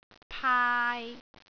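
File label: "paai3", Cantonese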